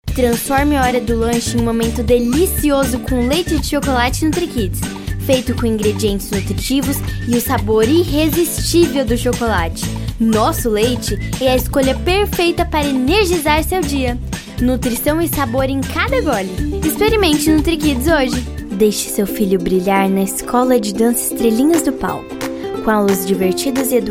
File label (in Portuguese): Audio Infantil Feminina